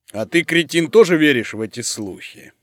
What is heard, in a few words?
german